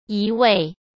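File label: "一味", Mandarin Chinese; hsk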